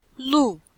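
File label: "lù", Chinese; Cours de Chinois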